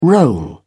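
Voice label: "roll up", English, British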